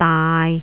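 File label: "daai6", Cantonese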